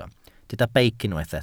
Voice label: "beic", Welsh

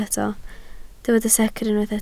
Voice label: "secr", Welsh

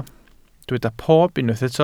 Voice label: "pob", Welsh

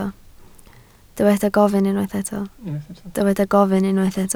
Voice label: "gofyn", Welsh

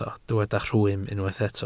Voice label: "rhwym", Welsh

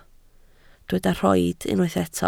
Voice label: "rhoid", Welsh

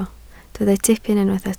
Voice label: "tipyn", Welsh

